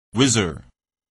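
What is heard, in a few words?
"whizzer", English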